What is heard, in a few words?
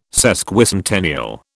"Sesquicentennial", British